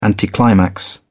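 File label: "anticlimax", English, British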